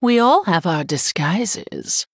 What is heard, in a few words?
Female Human TRIGGER Molten Reflection